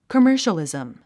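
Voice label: "commercialism", English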